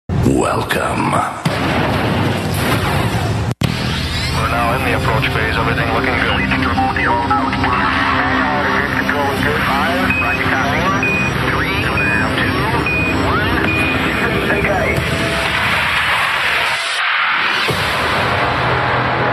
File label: promo